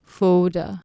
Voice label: "foda", Anglo Saxon